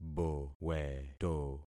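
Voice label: "boweto", concatenated syllables; Affixing experiment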